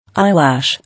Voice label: "Eyelash", English